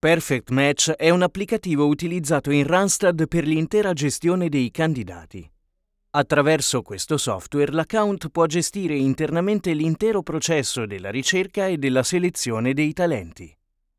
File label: ELearning